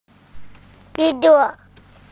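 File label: "zhi1 zhu1", Mandarin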